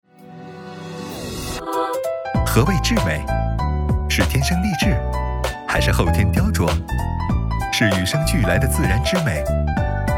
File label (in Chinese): 广告活力感; 纳斯医疗